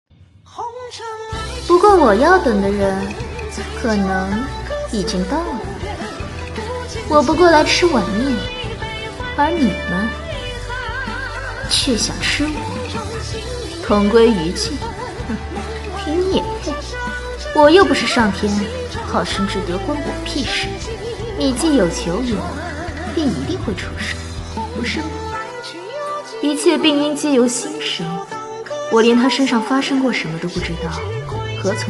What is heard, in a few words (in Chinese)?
样音动画角色